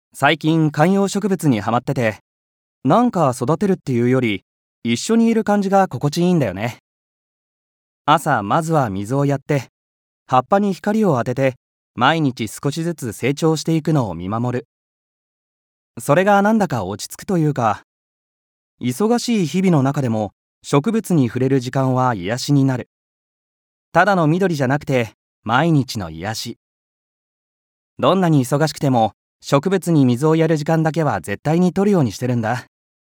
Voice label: Voiceover